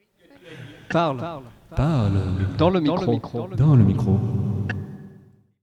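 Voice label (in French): parle dans le micro; residence MDQ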